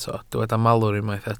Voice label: "malwr", Welsh